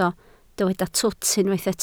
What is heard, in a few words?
"twt", Welsh